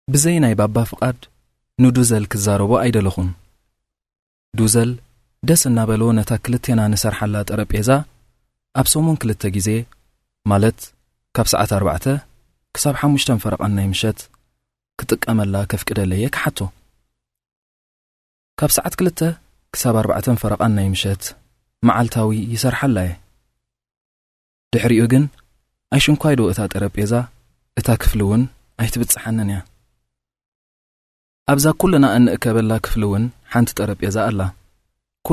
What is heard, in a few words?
Tigrinya M Narration